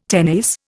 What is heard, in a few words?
"tenace", Indian